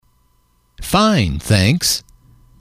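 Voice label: fine Thanks, english, male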